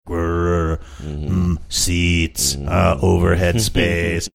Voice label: saying grr mmm seats uh overhead space zombie